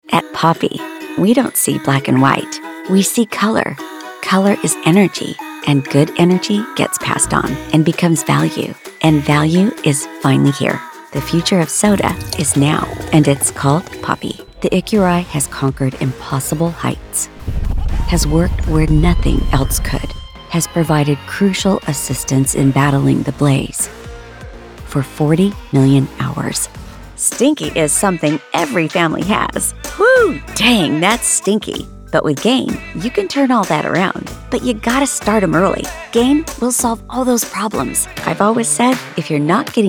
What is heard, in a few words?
Commercial